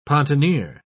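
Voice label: "pontoneer", British English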